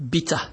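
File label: "bitter", Nigerian Pidgin, male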